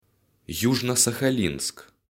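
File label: "Южно-Сахалинск", Russian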